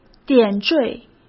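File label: "点缀", Mandarin Chinese; hsk; chinese-flashcards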